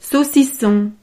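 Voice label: "saucisson", French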